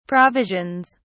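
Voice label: "provisions", British English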